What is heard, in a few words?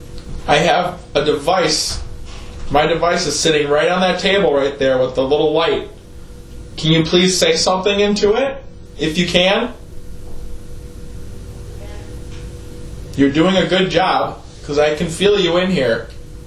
Disembodied voice